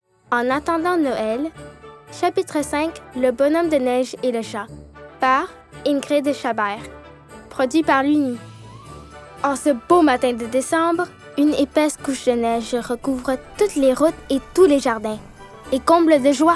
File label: Narration